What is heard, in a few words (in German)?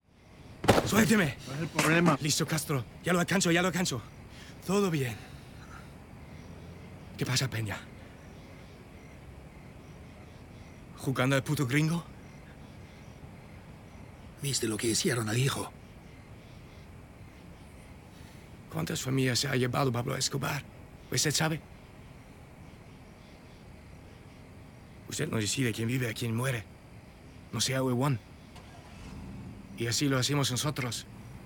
spanisch; Narcos; Trujillo